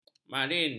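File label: "marin", Malay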